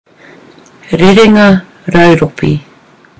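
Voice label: "rerenga rauropi", Maori; biodiversity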